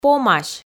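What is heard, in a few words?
"помась" Komi, female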